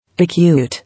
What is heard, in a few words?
"Acute", English